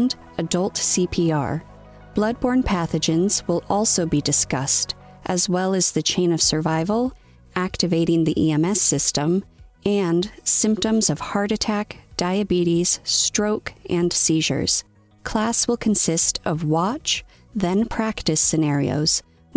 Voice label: Medical Hospital Training; AHA